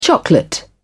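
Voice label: "chocolate", British English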